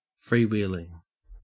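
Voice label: "freewheeling", Australian English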